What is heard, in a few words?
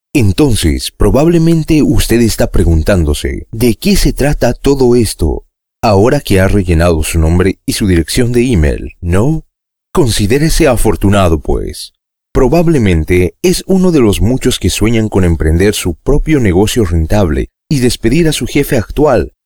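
male Spanish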